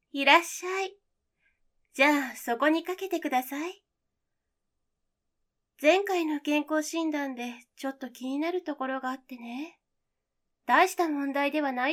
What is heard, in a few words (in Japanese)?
バイノーラル お姉さん 声高め